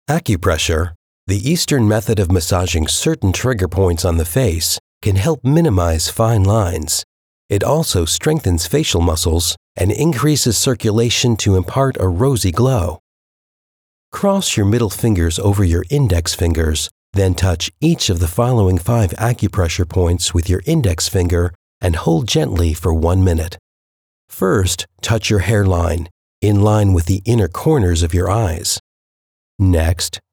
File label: eLearning Sample Accupressure